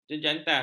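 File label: "jejantas", Malay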